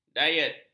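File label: "diet", Malay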